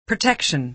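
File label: "protection", American English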